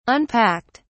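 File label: "unpacked", British English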